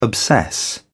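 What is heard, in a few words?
"obsess", British English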